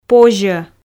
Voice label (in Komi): "позьӧ", Komi